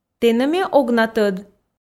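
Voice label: "тэнӧ ме ог на тӧд", Komi, female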